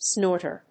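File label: "snorter", English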